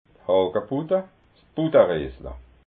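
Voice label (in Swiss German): "hagabutta", Alsatian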